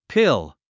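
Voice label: "pill", English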